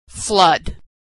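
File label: "flood", American English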